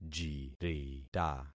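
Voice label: "jirita", concatenated syllables; Affixing experiment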